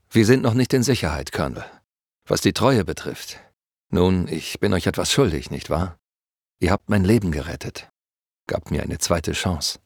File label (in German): Soldat ruhig dankbar